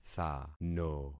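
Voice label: "thano", concatenated syllables; Affixing experiment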